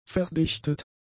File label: "verdichtet", German; adjectives